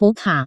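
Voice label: "buka", Taiwan Mandarin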